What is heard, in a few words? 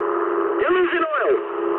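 damaged oil mood medium, speech English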